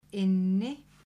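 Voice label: "Inni", Icelandic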